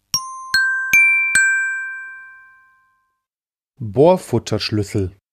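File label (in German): "Bohrfutterschluessel", German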